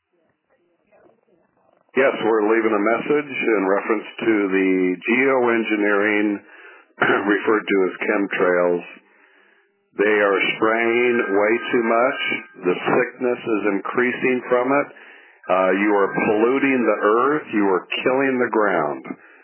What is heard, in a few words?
voice message